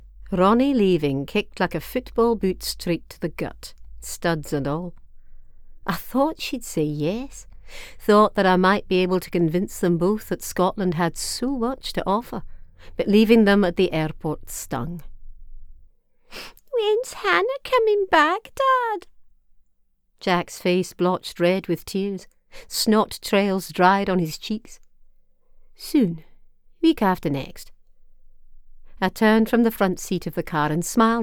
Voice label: Sample of Scots male